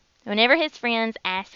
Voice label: southern